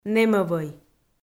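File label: "нэмӧвӧй", Komi, female; audio dict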